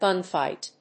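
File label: "gunfight", English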